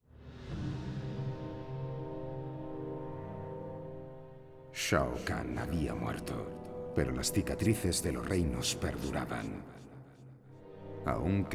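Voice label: music voice-over endings Spanish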